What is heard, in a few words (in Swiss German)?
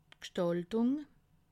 "gstaltung", alsace